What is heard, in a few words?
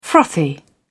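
"frothy", British English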